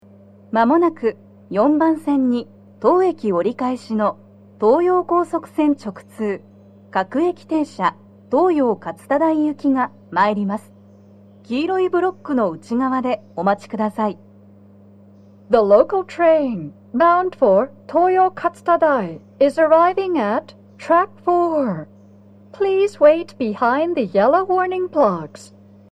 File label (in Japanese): 接近放送, 女声; 4番線 西船橋・東葉勝田台・津田沼方面; 放送は「各駅停車 東葉勝田台行」です。